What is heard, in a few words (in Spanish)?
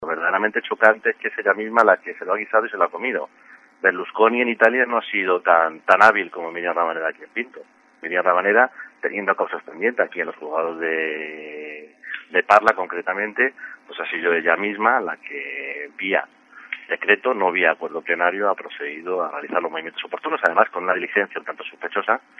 noticias